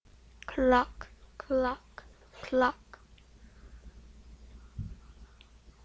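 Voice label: "clock", spoken word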